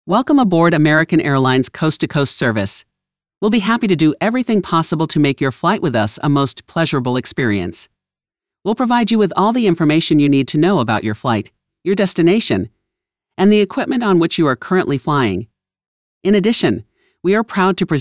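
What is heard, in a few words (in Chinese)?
外籍女英_外语_美式英语_航空广播_亲切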